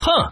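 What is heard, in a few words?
"peng", man, mahjong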